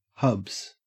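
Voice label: "hubs", Australian English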